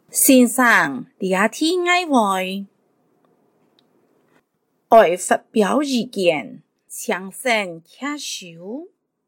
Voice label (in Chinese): 客語 課室生活用語 海陸腔